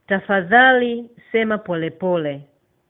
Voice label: slowly, swahili

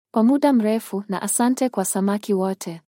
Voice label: so long swahili

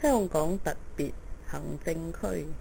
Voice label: hksar, cantonese

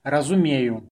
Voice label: understand, belarusian

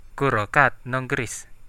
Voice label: how to say, Central Dusun

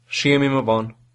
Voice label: goodbye, albanian

